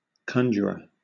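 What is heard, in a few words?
"conjurer", British English; pronunciations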